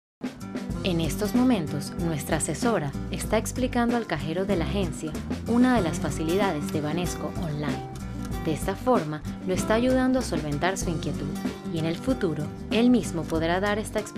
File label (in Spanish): Español - Venezuela - Mujer; VoiceBunny